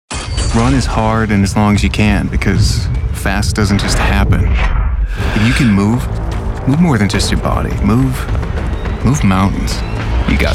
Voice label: Commercial